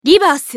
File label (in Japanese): ウィザード女; リバース